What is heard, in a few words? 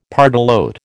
"pardalote", American